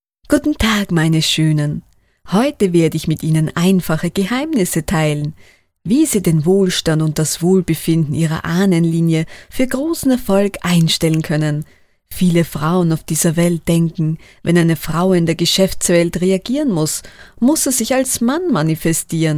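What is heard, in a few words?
Corporate Promotion German Female Voiceover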